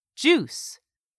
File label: "JUICE", english; 3000 words